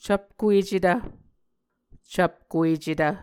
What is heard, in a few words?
"cvpkuecetv", muscogee; Mvskoke Words with Voicing Consonants; A Dictionary of Creek/Muskogee; SIL International; Onvkv Ohhvyayices